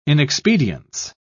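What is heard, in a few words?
"inexpedience", British English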